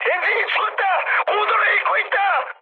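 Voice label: Korean damaged engine mood high; War Thunder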